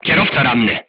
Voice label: voice message driver wounded, crew tanks polish